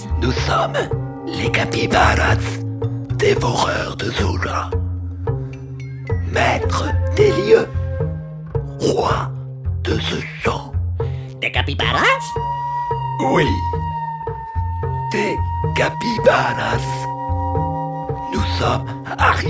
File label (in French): Voix Capibaras